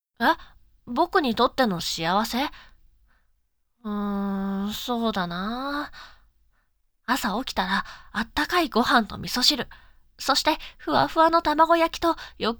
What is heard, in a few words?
voice odayaka